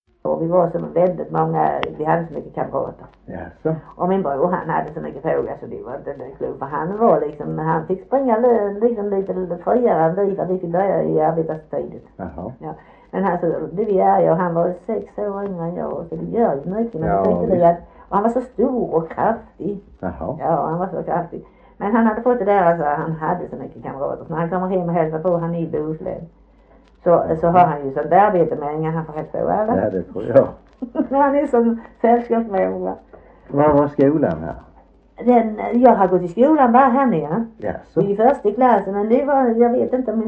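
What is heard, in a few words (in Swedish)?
Harplinge Halland, dialekter; Folkminnen; Svenska sagor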